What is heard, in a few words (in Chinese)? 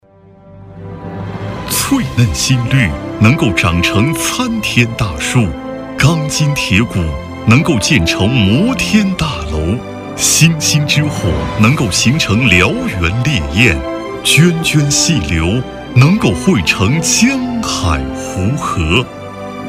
男国 专题 企业 浑厚; 绿建科技